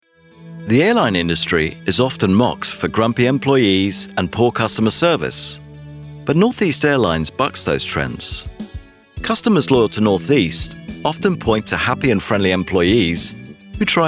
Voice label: explainer